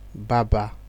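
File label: "barber", Nigerian Pidgin, male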